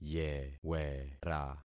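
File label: "yewera", concatenated syllables; Affixing experiment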